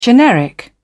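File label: "generic", British English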